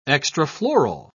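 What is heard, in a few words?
"extrafloral", British English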